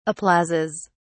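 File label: "applauses", British English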